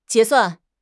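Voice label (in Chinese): "结算", Chinese; HSK6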